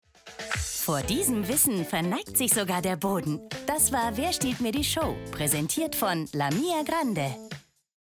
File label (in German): TV Sponsoring; Dr Oetker; Wer stiehlt mir die Show